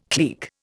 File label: "clique", Indian